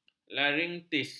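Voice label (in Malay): "laringitis", Malay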